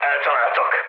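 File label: Hungarian aircraft destroyed mood low, speech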